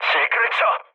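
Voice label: Korean bailout mood low, speech; War Thunder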